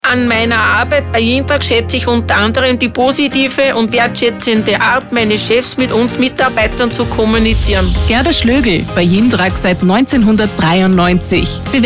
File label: SPOT